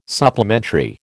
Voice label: "supplementary", American

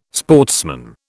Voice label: "sportsman", British

